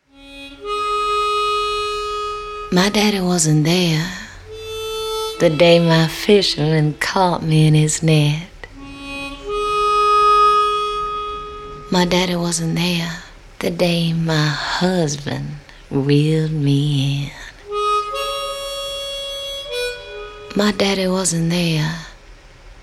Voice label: Character; Soulskin